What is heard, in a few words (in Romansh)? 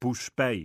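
"puspei" Romansh, Sursilvan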